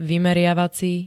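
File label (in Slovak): "vymeriavací", Slovak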